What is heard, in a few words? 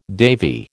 "Davy", American